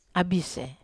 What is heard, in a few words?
"abis", Wamesa